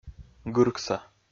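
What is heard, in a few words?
"гыркса", Komi, male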